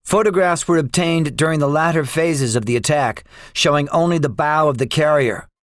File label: debriefing, english; War Thunder